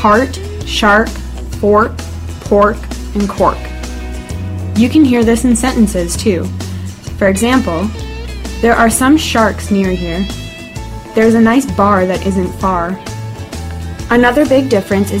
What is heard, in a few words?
The American English accent